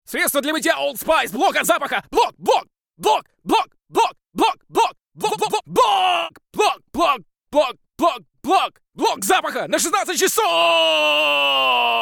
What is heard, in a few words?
male russian commercial; old spice